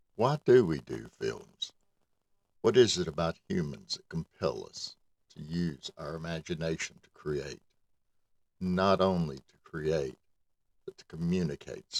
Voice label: Brand Video, Brand Voice; SAG-AFTRA

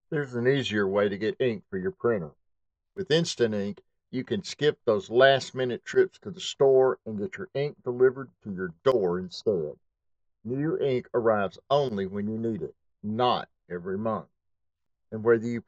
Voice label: Voiceover; Printer Ink Subscription

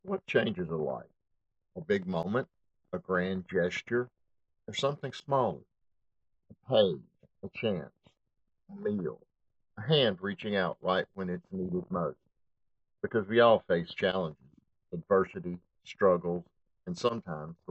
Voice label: Narration Narrator; United Way of Passaic County

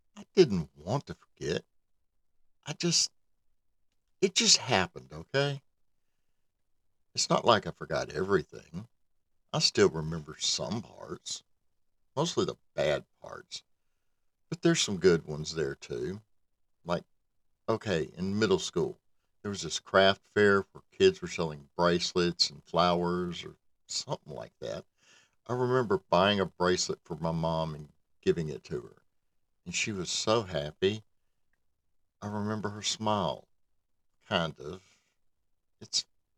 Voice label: Narrator; 'Remembering You'

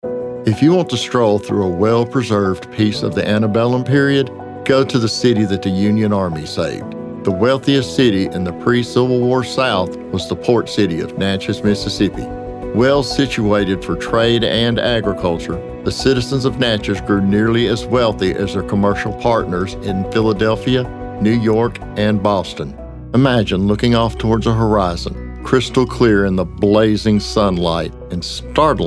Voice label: Narrative

